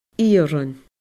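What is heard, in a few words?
"iarann", Scottish Gaelic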